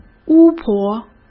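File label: "巫婆", Mandarin Chinese; hsk; chinese-flashcards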